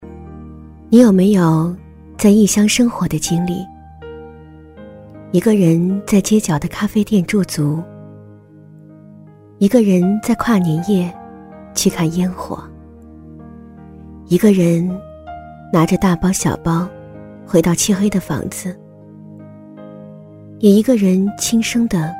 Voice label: 女声情感类 夜听类 节目

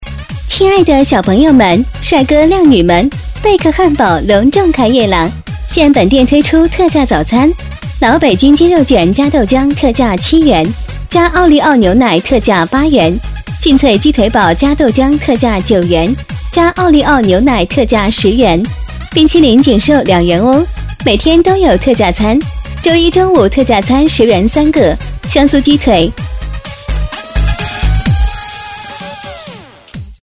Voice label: 促销 汉堡, peiyin; 贝克汉堡

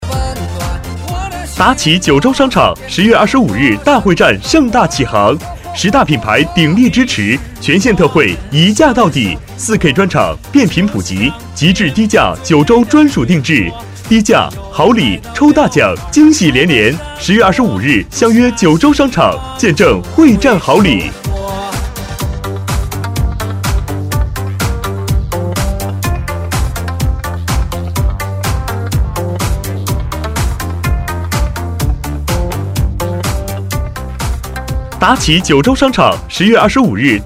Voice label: 【广告】; 达旗九洲商场

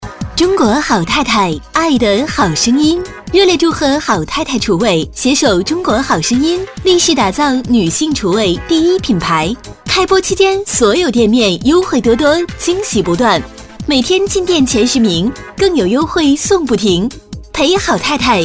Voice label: 促销; 中国好太太

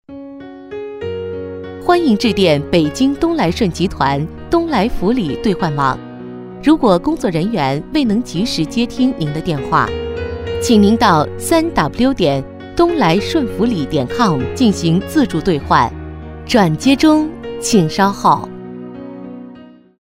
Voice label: 彩铃, 企业, nv; 东来福礼

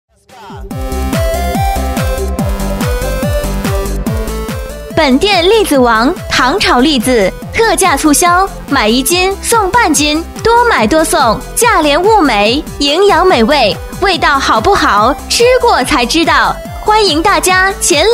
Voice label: 促销; 栗子王

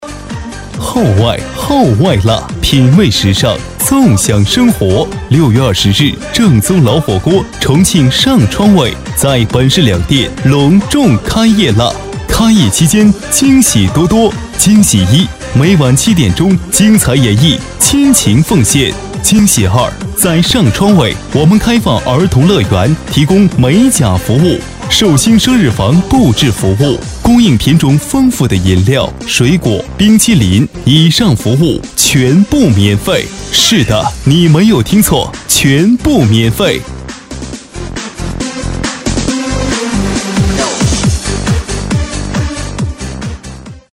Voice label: 广告 火锅店

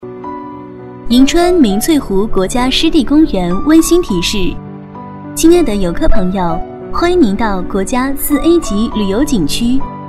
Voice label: 温馨提示 湿地公园

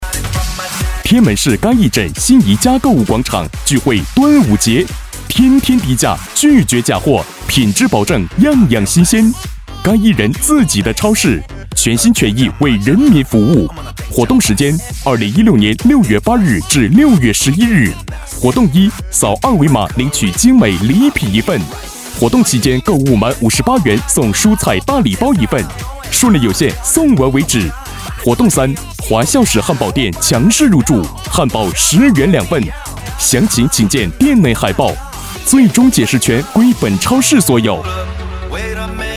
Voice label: 促销 购物广场 年轻激情

